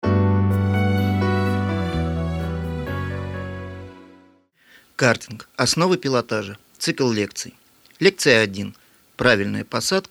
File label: audiobook; karting